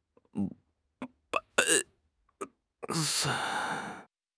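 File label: "bai2", Chinese; HSK; HSK2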